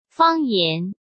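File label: "方言", Mandarin Chinese; hsk; chinese-flashcards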